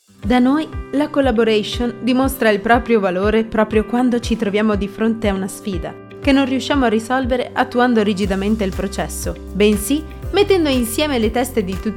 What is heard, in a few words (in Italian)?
speaker music